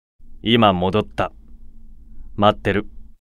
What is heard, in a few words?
job notification voice; Beelzebub